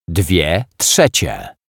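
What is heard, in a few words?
"dwie trzecie", Polish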